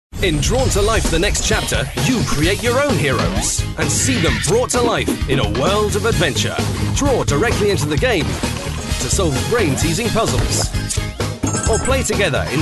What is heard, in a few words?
commercials